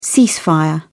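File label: "ceasefire", British English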